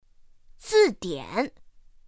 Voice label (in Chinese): "zìdiǎn", pinyin; pinyin2; pinyinji